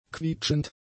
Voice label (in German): "quietschend", German; adjectives